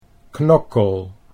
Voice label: "knokkel", Dutch

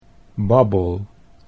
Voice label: "babbel", Dutch

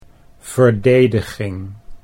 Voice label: "verdediging", Dutch

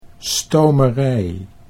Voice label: "stomerij", Dutch

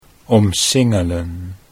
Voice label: "omsingelen", Dutch

